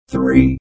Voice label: Robot Voice "0"

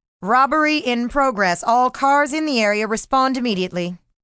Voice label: Police Robbery, Police dispatch radio, female; Radio Dispatch Sound Effects

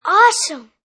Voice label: Boy

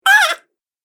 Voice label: Cartoon Game Voice "Ahhhh"

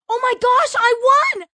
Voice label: Omigosh I Won, Radio, Female

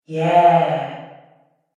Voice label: "Yeah" With Reverb Female Voice; recorded voice

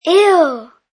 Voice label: Boy, Boy Says Ewww; Exclamation; Exclamation Sound Effects

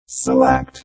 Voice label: Robot Voice "Select"; Robot Type 2 voice; Robot Sound Effects; Lynne Music

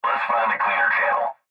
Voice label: Cb Trucker Radio; Radio Voice; Blastwave

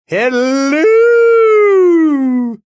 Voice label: Hellooooo, Male; Greetings And Goodbye

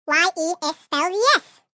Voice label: Spells Yes, Female; Exclamation

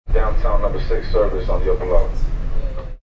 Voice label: Subway PA Announcement; Part of Subways (Sound Effects:Vehicles)

